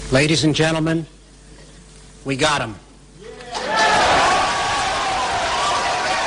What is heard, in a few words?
ladies and gentlemen we got him with cheer